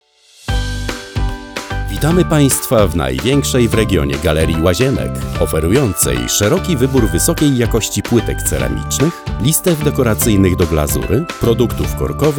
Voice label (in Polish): reklama; galeria handlowa